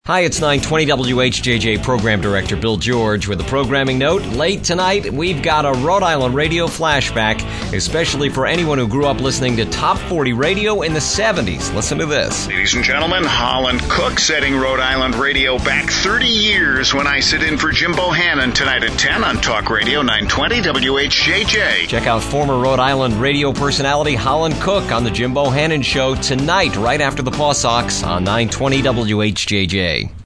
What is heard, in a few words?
PROMO COOKER; in for jim bohannon